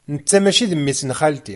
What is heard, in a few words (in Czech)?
berberština